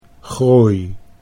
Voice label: "groei", Dutch